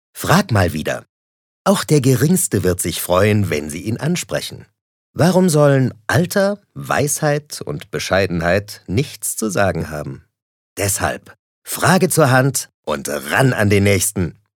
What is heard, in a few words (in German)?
Werbung Freundlich